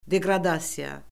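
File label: "deqradasiya", Azerbaijani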